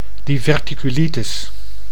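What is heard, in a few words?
"diverticulitis", Dutch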